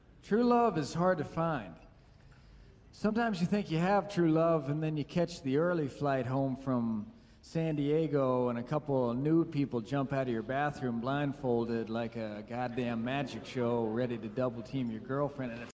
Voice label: wedding speech